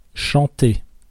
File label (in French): "chanter", French; present